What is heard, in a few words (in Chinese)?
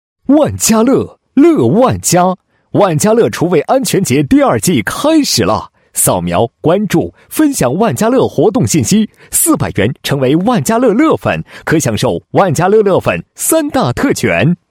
男 促销, 配音; 万家乐厨卫宣传促销